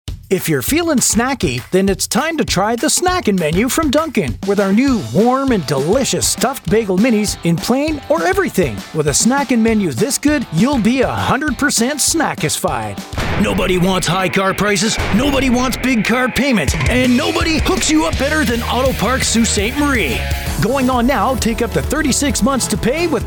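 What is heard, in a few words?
English, Canada, male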